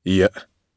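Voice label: Vox Deny, Japanese; kingsraid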